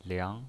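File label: "liang", pinyin, Mandarin Chinese, second tone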